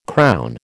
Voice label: "crown", American